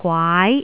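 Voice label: "gwaai2", Cantonese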